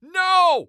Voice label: "No", Human Male